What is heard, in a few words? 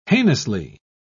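"heinously", British English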